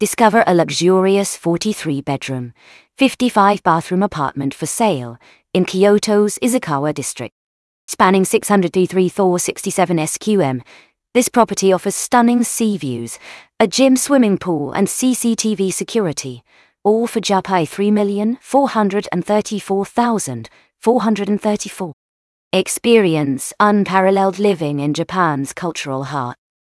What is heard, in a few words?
narration audio